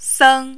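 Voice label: "seng", Mandarin, first tone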